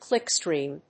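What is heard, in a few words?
"clickstream", English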